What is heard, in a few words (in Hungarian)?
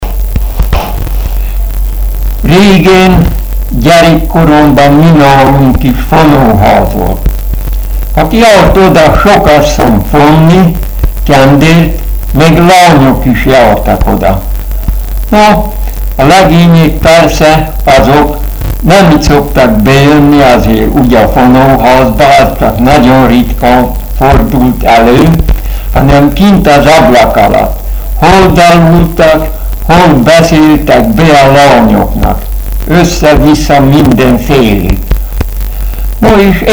nyelvjaras gyujtesek